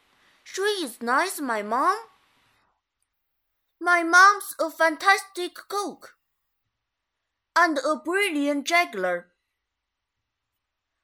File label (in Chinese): 女 男孩英文